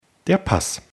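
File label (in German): "pass", German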